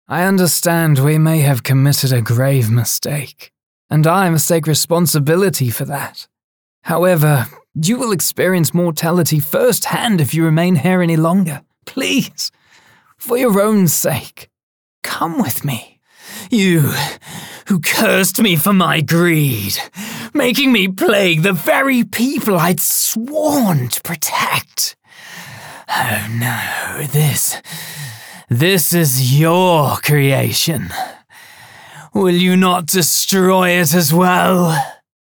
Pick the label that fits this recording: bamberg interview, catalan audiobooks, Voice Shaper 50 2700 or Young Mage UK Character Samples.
Young Mage UK Character Samples